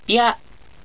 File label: "bya", japanese